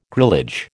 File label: "grillage", American